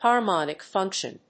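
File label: "harmonic function", English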